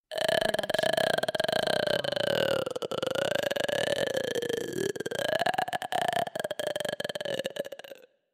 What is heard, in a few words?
horror scary voice